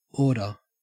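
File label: "order", Australian English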